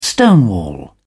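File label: "stonewall", English, British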